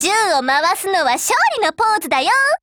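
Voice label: WIN, Japanese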